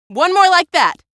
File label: strider hurting; hl2 ep1; episode 1; c17